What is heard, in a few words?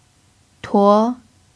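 "tuo2", Mandarin pinyin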